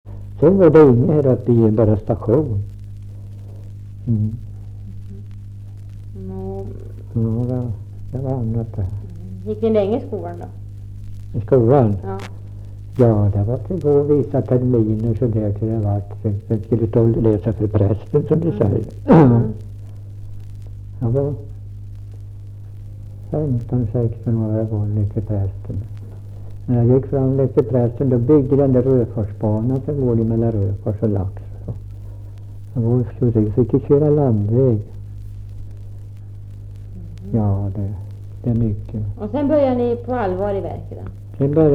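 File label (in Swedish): Ramundeboda Närke, dialekter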